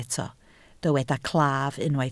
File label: "claf", Welsh